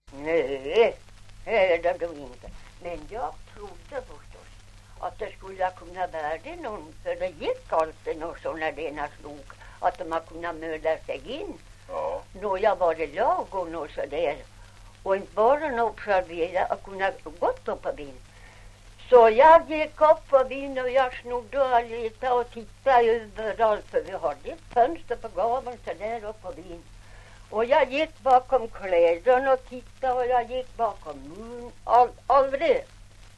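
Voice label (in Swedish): Ovansjö Gästrikland, dialekter; Folkminnen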